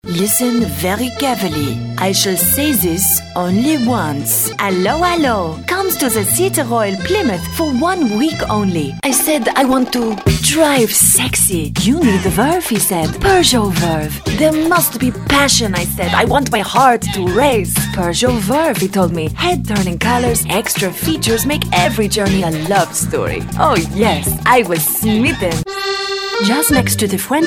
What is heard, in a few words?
french